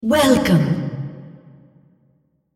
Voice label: female, welcome